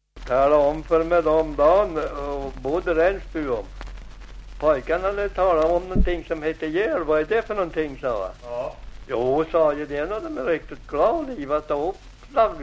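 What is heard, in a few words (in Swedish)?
Aspås Jämtland, dialekter; Folkminnen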